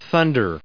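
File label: "thunder", English, us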